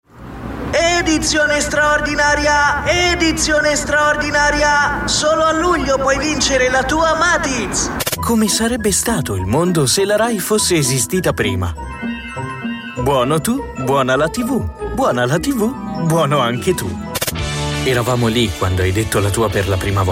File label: Italian male, commercial